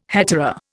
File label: "hetaera", Indian